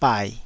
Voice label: "bai3", taiwanese syllables; 台語音節發音檔案